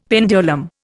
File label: "pendulum", Indian; Dictionary